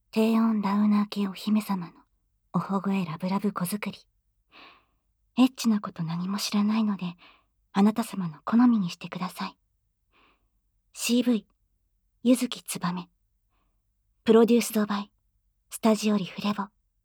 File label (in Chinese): 标题朗诵; KU100